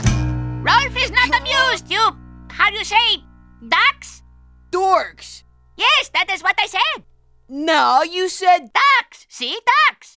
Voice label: banter; KEVIN AND ROLF; Ed Edd n Eddy; Adromulus Pack; EEnE